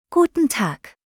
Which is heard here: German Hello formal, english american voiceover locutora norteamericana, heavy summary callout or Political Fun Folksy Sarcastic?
German Hello formal